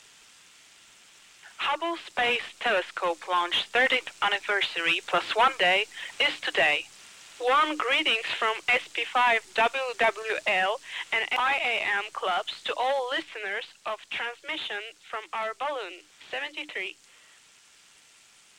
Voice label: Voice ENG